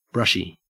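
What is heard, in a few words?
"brushy", Australian English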